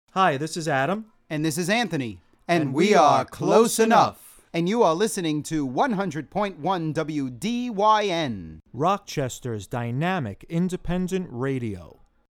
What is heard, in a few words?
Radio spot mix; WDYN